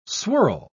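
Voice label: "swirl", English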